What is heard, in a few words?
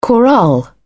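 "chorale", British English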